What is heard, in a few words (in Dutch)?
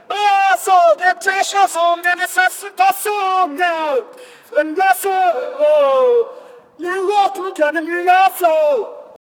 2 mensen roepen om hulp